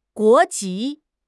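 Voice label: "guo2 ji2", Chinese; HSK4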